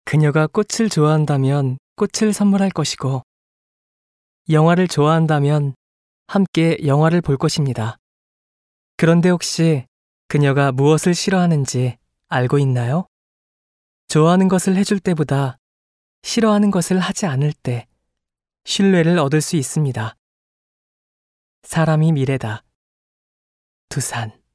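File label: Korean, male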